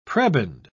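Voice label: "prebend", British English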